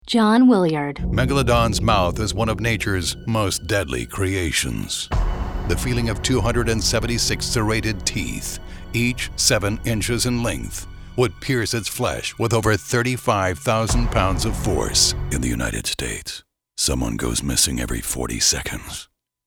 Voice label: narration